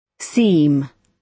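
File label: "seem", British English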